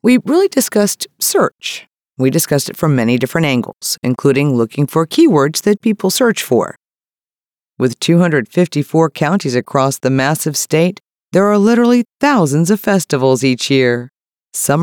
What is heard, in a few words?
Elearning